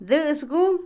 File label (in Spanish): soldado, Otomí, mujer; INALI